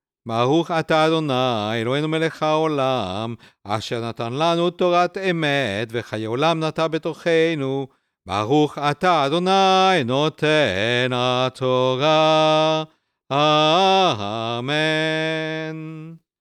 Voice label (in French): Benediction Torah Apres; la liturgie du chabbat; offices de chabbat